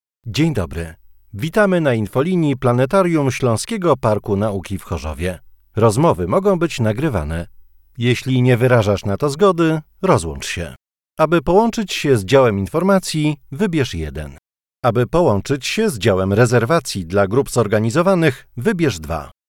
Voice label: IVR